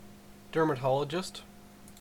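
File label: "dermatologist" Canadian English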